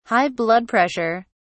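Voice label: "high blood pressure", English, British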